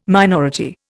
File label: "minority", Indian